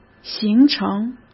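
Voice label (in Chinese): "行程", Mandarin Chinese; hsk; chinese-flashcards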